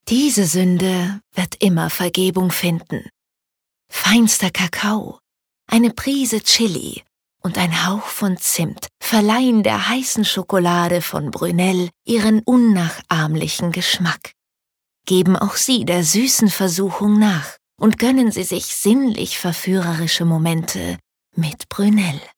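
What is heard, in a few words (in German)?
advert, Sanft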